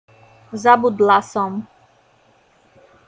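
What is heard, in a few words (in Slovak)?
"zabudla som", Slovak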